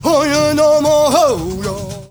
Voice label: male Zulu, male noise; Heart of Africa; Spectrasonics; Heart of Africa 1